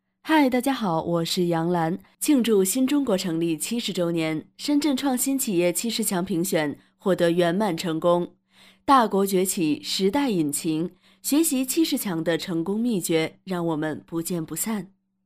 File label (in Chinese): 明星模仿; 杨澜